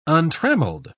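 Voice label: "untrammeled", British English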